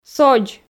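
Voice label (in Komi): "содз", Komi, female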